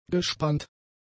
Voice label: "gespannt", German; adjectives